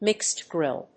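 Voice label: "mixed grill", English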